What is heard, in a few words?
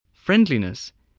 "friendliness", English voice, spelling